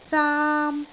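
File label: "zaam1", Cantonese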